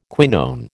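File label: "quinone", American English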